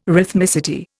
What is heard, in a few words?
"rhythmicity", Indian